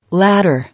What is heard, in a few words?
"Ladder", English